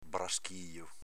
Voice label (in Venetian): braschijo, Veneto